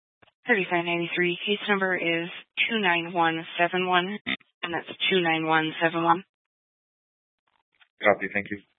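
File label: Police Dispatch, scanner audio recording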